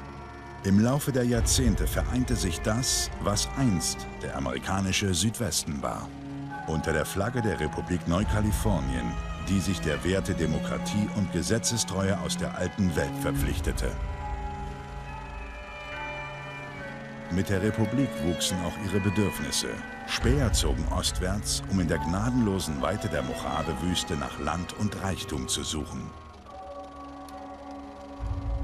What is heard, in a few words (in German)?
Erzähler; Im Laufe der Jahrzente